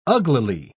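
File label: "uglily", British English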